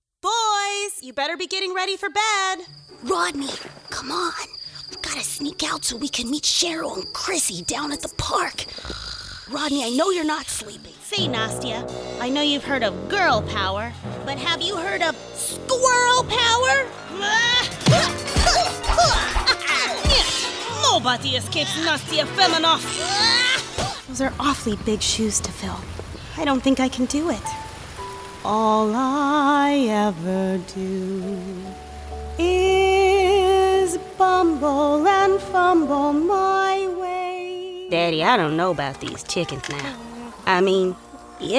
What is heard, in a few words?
Animation